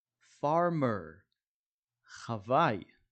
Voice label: "farmer" – "חוואי"